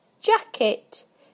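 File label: "jacket", British English; PictureDictionary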